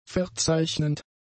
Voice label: "verzeichnend", German; adjectives

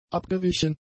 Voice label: "abgewichen", German; adjectives

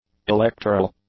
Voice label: "electoral", English; adjectives

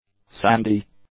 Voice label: "sandy", English; adjectives

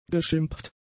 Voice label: "geschimpft", German; adjectives

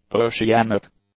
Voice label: "oceanic", english; adjectives

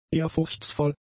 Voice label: "ehrfurchtsvoll", german; adjectives

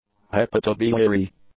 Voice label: "hepatobiliary", English; adjectives

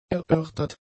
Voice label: "erörtert", German; adjectives

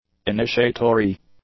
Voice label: "initiatory", English; adjectives

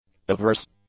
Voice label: "averse", English; adjectives

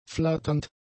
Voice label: "flatternd", German; adjectives

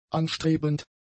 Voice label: "anstrebend", German; adjectives

